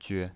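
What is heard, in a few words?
"jue", neutral tone, pinyin voice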